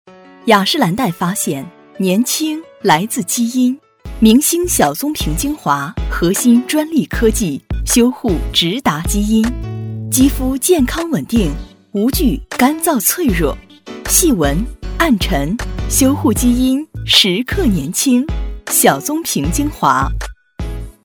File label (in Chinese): 广告